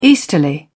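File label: "easterly", British English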